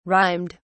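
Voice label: "rhymed", British English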